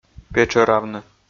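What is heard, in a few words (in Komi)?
"петшӧравны", Komi, male; audio dict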